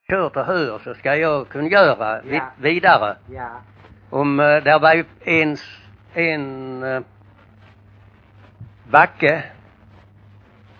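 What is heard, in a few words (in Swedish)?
Bosjökloster Skåne, dialekter svenska sagor; Folkminnen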